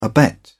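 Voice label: "abet", British English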